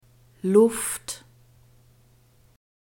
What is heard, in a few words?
"luft", german; nouns gender feminine